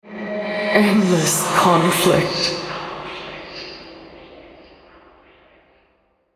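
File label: Female, American English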